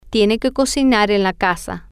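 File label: She has to cook at home, Spanish, female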